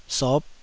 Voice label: "sop5", Taiwanese

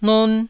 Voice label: "non1", Taiwanese

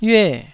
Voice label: "yue4", taiwanese Syllables

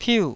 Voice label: "piu4", taiwanese

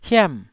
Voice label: "hiam4", Taiwanese syllable

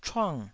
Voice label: "chuang4", taiwanese

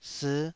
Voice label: "sii5", Taiwanese syllable

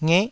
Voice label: "ngeh9", taiwanese syllables

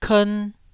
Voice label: "kern1", taiwanese syllables

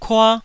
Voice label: "kua1", Taiwanese syllable

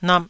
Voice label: "nap2", taiwanese

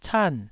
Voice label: "can4", taiwanese syllables

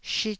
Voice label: "shit4", taiwanese Syllables

